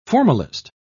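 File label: "formalist", English, British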